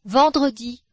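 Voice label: "vendredi", French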